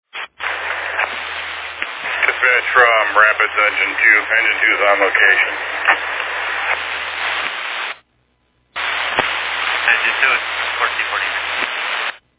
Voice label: Fire Dispatch